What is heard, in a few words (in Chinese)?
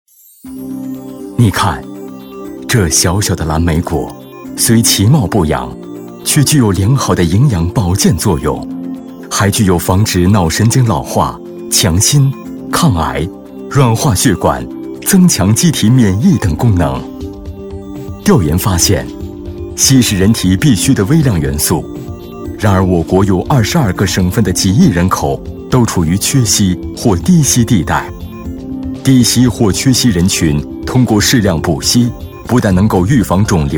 男 专题 健康产品介绍